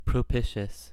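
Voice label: "propitious", US English; pronunciations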